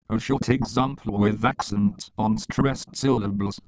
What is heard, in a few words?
accents